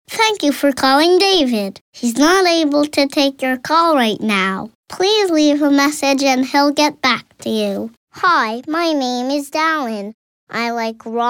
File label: US boy; children